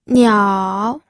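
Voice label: "niao", third tone, pinyin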